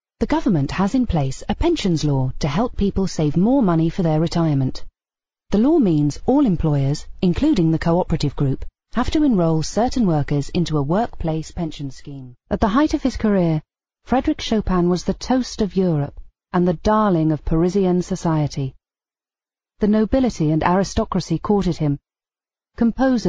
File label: British F, British English